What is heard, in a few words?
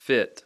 "fit", English